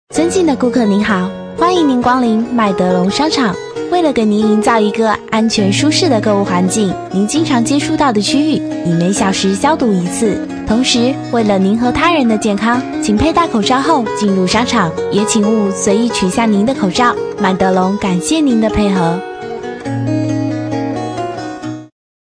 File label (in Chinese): 女 商场提示